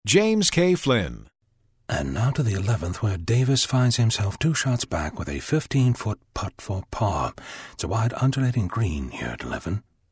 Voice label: GOLF ANNOUNCER